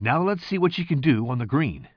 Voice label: commentator